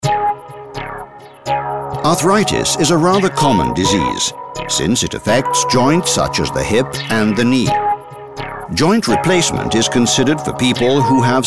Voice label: english british e-learning